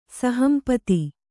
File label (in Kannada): "ಸಹಂಪತಿ", Kannada